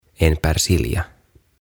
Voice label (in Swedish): "En Persika" "Persikka"